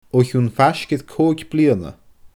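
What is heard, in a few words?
"o chionn faisg air cooig bliadhna", Scottish Gaelic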